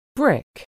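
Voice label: "brick", English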